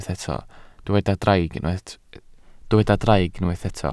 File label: "draig", Welsh